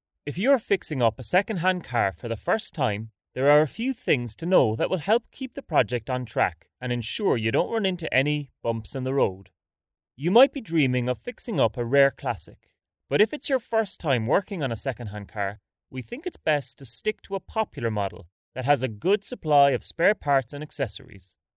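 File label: Irish English, male, voice over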